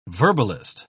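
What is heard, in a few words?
"verbalist", British English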